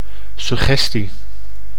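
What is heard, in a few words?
"suggestie", Dutch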